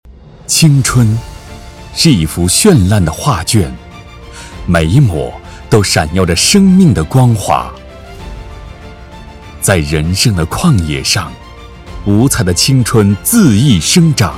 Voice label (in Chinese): 男 宣传片 大气激情